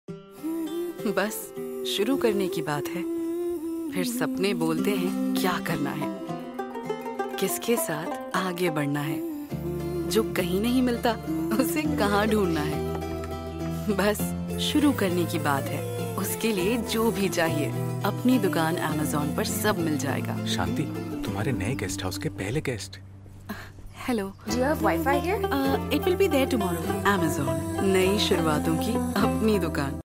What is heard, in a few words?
TVC hindi; amazon